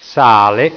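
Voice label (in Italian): "sale", Italian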